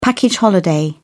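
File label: "package holiday", British English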